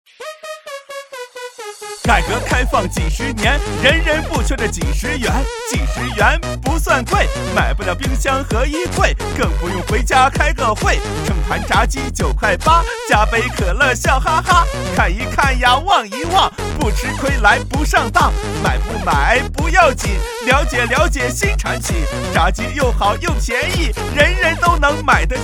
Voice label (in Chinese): 促销喊麦